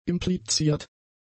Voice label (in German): "impliziert", German; adjectives